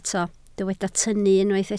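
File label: "tynnu" Welsh, spoken word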